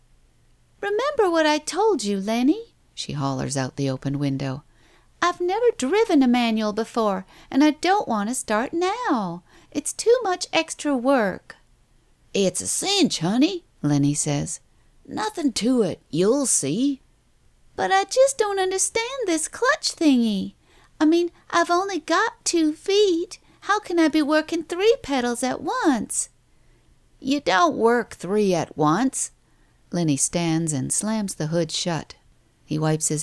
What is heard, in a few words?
solemn used car salesman young southern couple